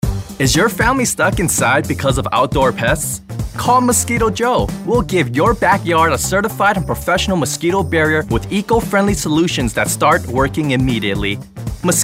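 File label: full of youthful energy; Mosquito Joe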